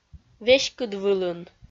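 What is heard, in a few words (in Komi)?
"веськыдвылын", Komi, female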